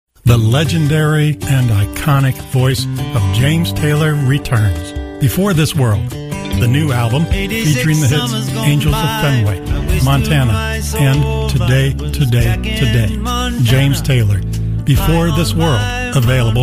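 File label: Promo, British English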